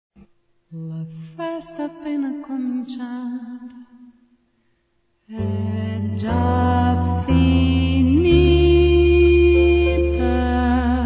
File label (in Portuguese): Declaração Amor Feminino; Telemensagens; Telemensagens 2017